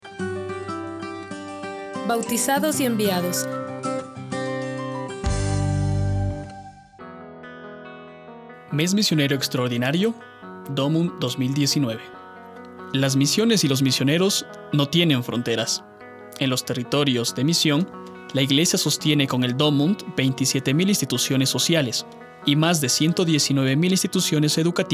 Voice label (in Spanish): Domund espanol; MDomund 2019